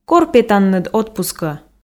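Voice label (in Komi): кор петанныд отпускӧ, Komi, female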